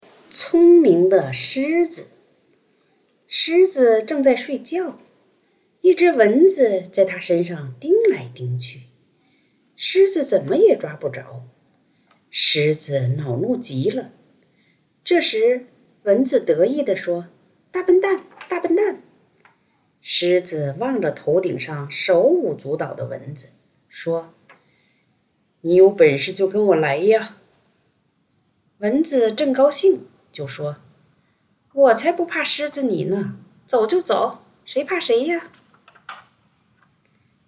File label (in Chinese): 儿童故事; 聪明的狮子